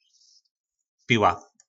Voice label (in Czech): "Piła", nářečí Po našimu; Pila